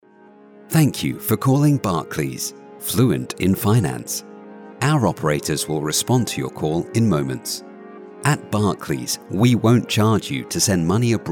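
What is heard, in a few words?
British on hold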